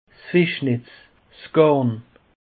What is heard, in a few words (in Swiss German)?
"fischnetz", alsace; peche